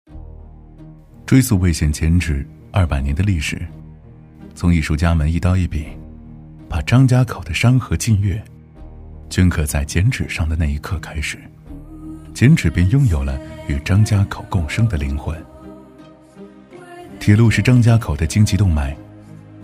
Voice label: 男国 纪录片; 非遗剪纸

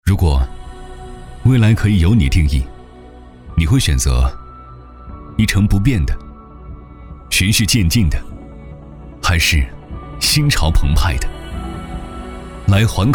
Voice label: 男 大音 形象宣传片; 走心企业形象片样音; 环科园

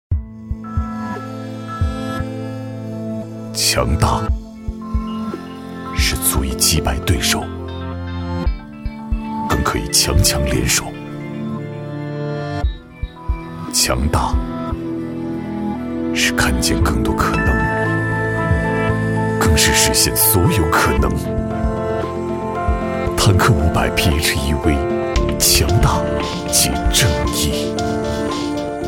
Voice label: 男国 沉稳烟嗓 汽车; 坦克500

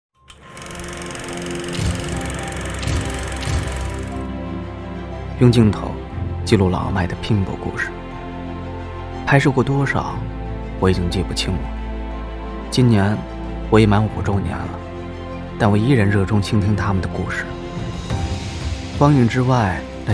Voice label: 男 走心素人; 素人风格男声

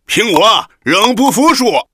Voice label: 天津方言 男 大爷 天津话; 方言配音; 天津方言 男375大音 跳水大爷 天津话

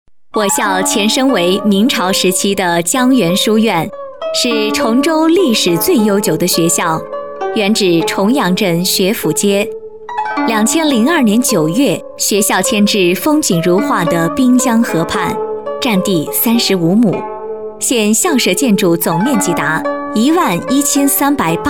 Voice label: 女国 解说词 小学; 崇州学府街小学

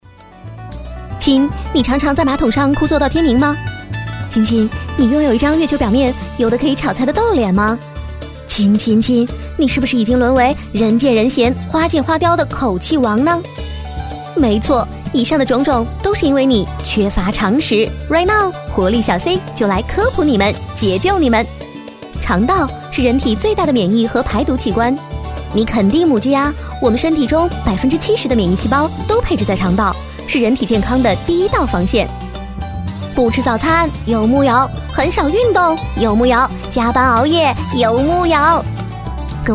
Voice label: 女 国 大音 诙谐幽默; 蒙牛优益C

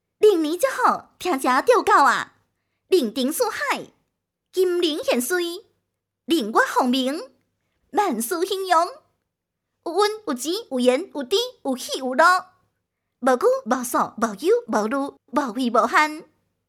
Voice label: 闽南语 女 闽南语试音 龙年祝福 年轻; 方言配音

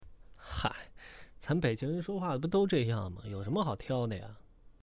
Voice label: 北京方言 男 方言一句; 方言配音

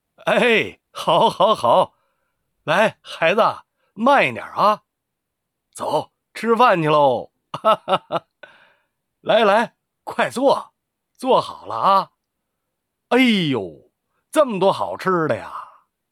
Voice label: 北京方言 男 老父亲; 方言配音

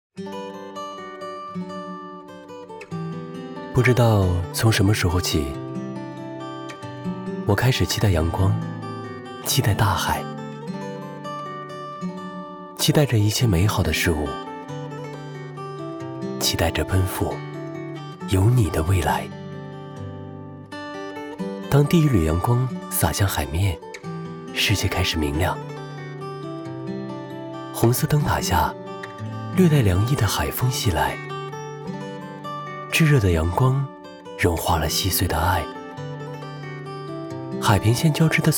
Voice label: 男 宣传片 素人 微电影 小清新 爱情; 素人风格男声; 余生漫漫都是你

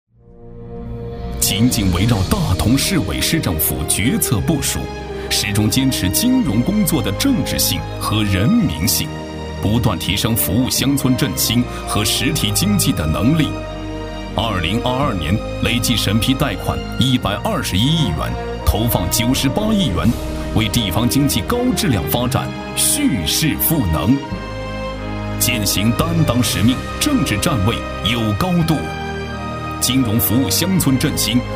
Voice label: 男 大音, 银行宣传样音; 中国农业银行大同市分行

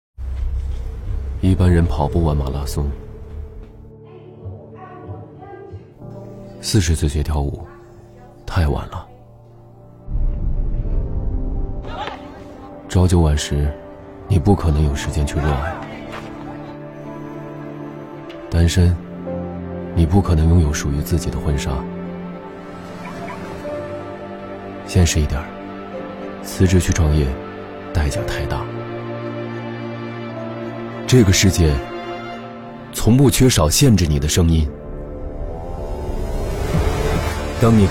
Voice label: 男国 汽车, 品质走心tvc; 奥迪; 汽车tvc广告

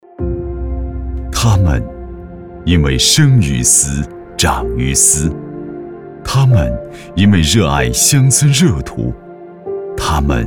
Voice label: 男 旁白; 中秋国庆成都文旅西来乐章

